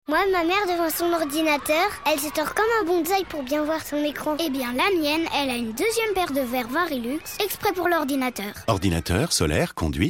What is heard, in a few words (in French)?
radio; VARYLUX